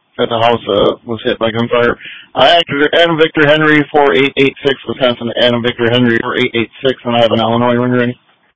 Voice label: Sheriff Dispatch